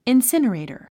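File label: "incinerator", English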